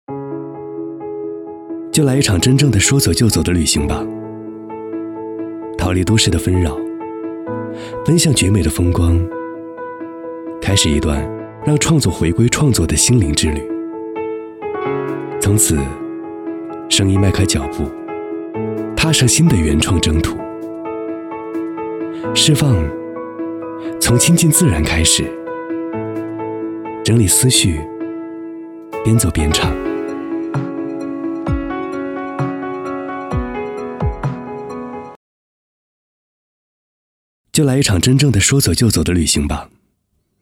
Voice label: 男 旁白自然 旅行; 文旅配音

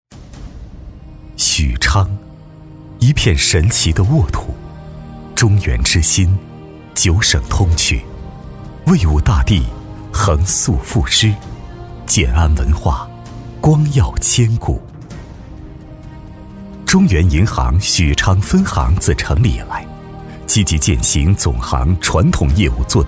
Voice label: 男 宣传 银行; 银行宣传样音; 中原银行-许昌分行

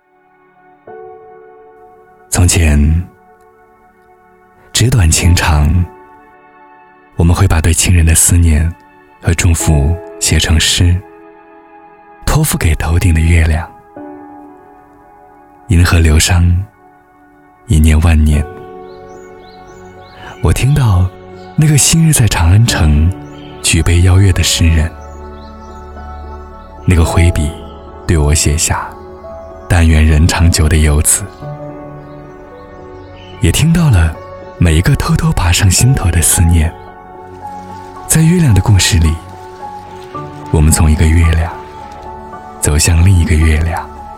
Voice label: 男 中秋 故事感; 中秋节样音; 我的月亮

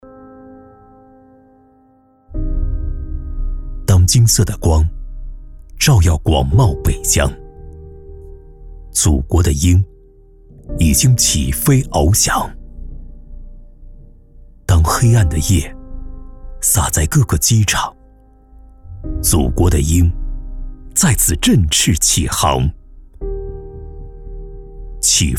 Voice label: 男 形象片 起飞 质感走心; 走心企业形象片样音

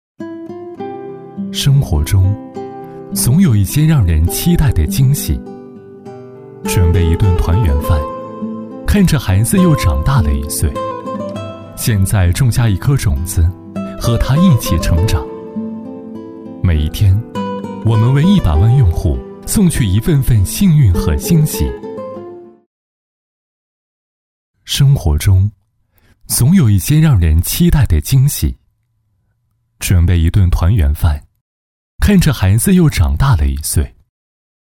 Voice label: 男 温暖, 文旅配音; 中行元宵节; 文旅节气样音

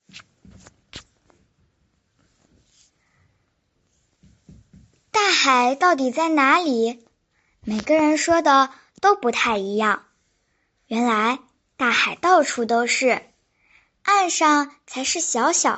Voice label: 女真童; 海洋; 真童配音

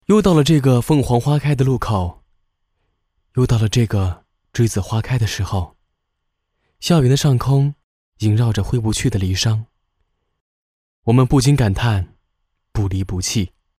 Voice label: 男 大音 大学微电影

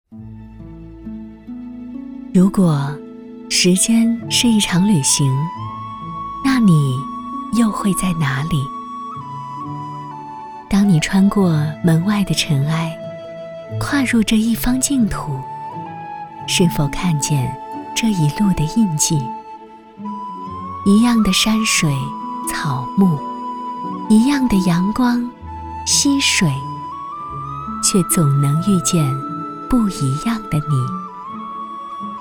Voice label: 女国 文旅走心 宣传片; 千佛山; 文旅配音; 千佛山宣传片

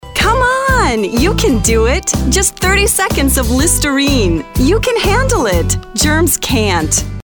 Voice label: 女英 广告

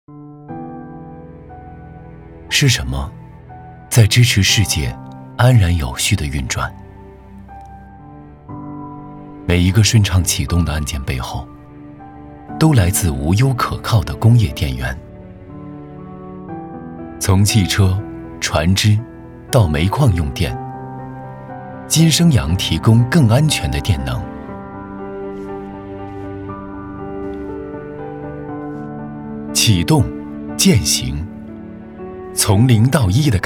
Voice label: 男 形象宣传; 走心企业形象片样音; 金升阳

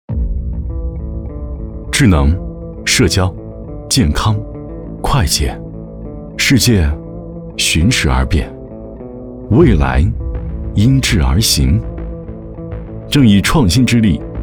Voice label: 男 科技 智能

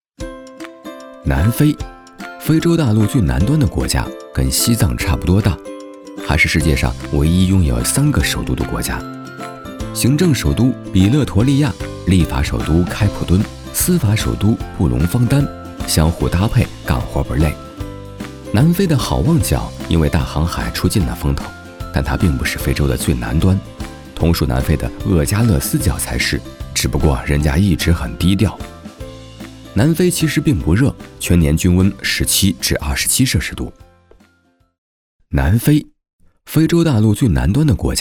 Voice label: 男 素人; 素人风格男声; 南非-坐拥3个首都的国家

